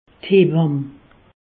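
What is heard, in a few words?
"hewamm", Haut Rhin; medecine; sage femme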